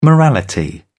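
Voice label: "morality", British English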